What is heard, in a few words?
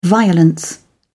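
"violence", English, British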